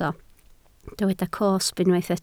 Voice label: "cosb", Welsh